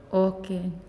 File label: "All", Naasioi; unitech